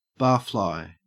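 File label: "barfly", Australian English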